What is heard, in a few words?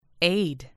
"aid", US English